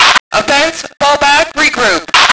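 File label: female regroup; lmctf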